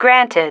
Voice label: "granted", female